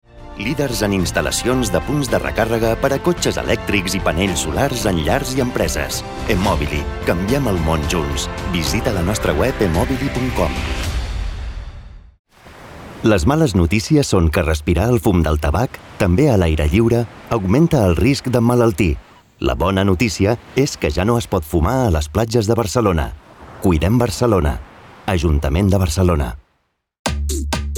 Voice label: catalan promos; espanhol da Espanha e catalão